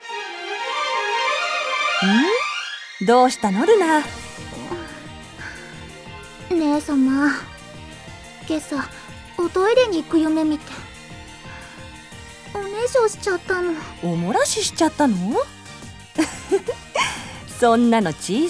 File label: Voice Drama